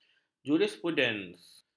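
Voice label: "jurisprudens", Malay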